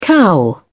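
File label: "cowl", British English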